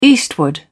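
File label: "eastward", British English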